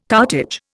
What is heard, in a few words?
"cartage", Indian